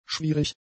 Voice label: "schwierig", German; adjectives

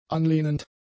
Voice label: "anlehnend", German; adjectives

